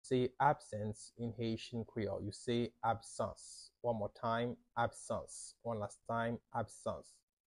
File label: How to say Absence in Haitian Creole – Absans pronunciation by a native Haitian tutor